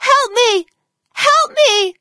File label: fem help me; epstein